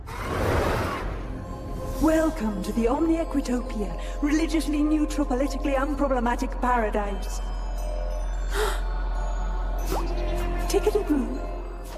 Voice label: ANIMATION, voice type / accent: female / British